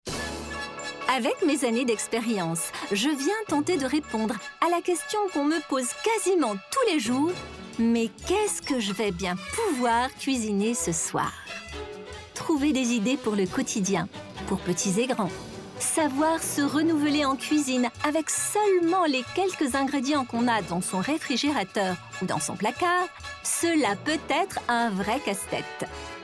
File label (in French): voix off